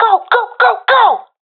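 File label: request move, professional female; vo; agents; Source Model Packs; Counter Strike Model Pack; CS2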